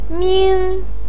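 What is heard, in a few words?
"ming", Mandarin Chinese first tone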